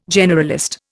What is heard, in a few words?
"generalist", Indian